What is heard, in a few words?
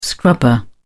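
"scrubber", British English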